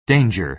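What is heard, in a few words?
"danger", American English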